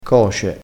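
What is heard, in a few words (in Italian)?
"coce", vocabolario dialettale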